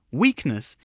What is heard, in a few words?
"weakness", spelling